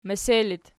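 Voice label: "mese" locative, Archi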